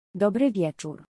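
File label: "dobry wieczor", Polish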